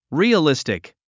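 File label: "realistic", English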